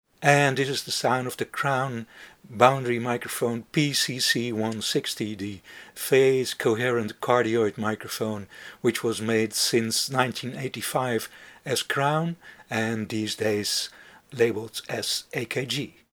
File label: Crown PCC160 sound UK